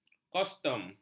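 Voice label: "kostum", Malay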